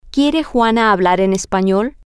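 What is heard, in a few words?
Spanish, female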